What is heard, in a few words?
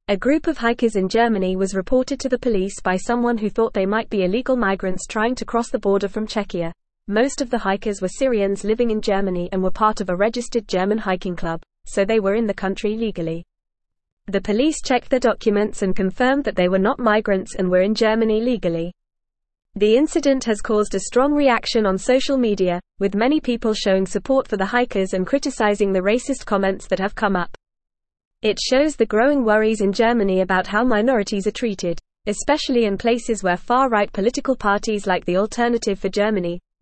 English Upper Intermediate FAST Reading; Hiking Group Reported as Illegal Migrants in German; English Newsroom